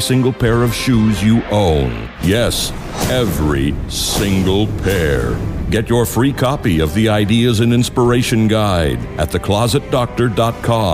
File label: Radio Ad Closet Movie Trailer W Music